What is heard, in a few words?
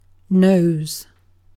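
"nose", British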